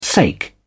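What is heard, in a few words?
"sake", British English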